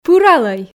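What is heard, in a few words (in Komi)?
"пыралӧй", Komi, female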